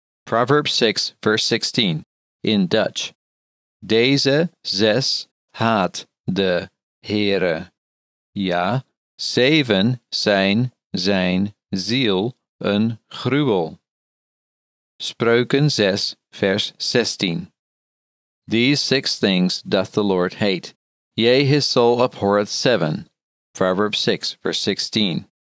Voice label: Proverbs 6 16 in Dutch slowly